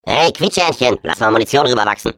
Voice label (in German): Defective Turret defect, de; sp sabotage factory; postrange